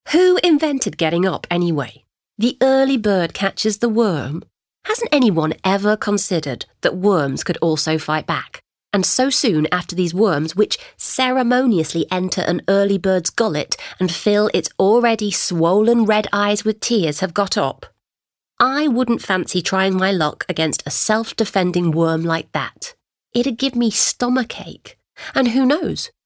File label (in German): Dynamisch; TheEarlyBird